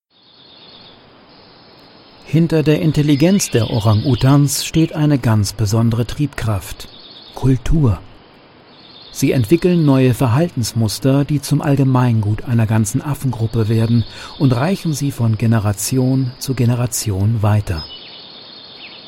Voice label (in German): mix dokus voiceover